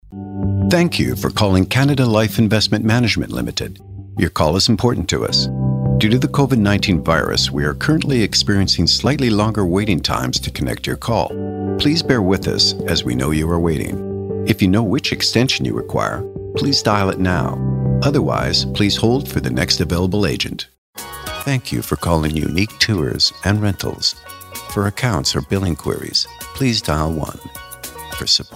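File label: english canadian interactive voice response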